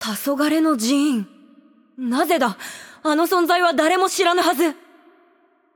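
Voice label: voice over, Japanese; Game Outro; bloom minigame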